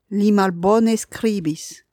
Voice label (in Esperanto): "li malbone skribis", Esperanto; frazoj